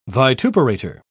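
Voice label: "vituperator", British English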